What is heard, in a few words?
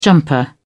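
"jumper", British English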